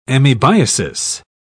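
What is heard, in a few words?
"amoebiasis", English, British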